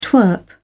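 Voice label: "twerp", English, British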